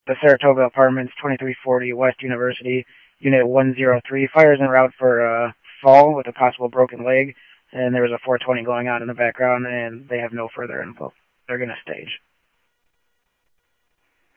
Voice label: Mesa Simulcast, Mesa PD, Police Dep; MESA PD 2 Centrl; MesaPoliceDep